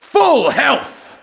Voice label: Full Health Voiceover